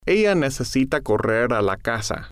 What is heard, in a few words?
ella Necesita Correr A La Casa, Spanish